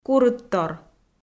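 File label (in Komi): "курыдтор", Komi-Zyrian, female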